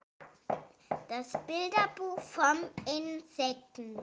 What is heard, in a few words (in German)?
Insekten Maedchen